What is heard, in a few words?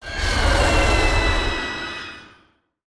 enchantress threat